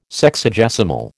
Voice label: "Sexagesimal", American